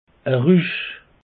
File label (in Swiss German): "rusch", alsace; peche